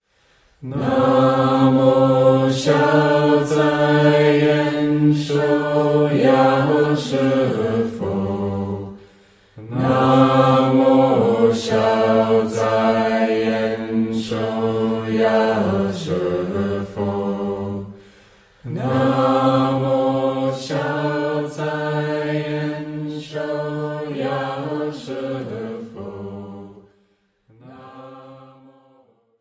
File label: Chinese sample